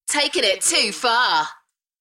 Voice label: UK female; Takin it too far; beanstalk audio